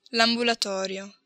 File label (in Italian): "ambulatorio", Italian